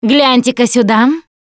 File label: look here, russian; Producer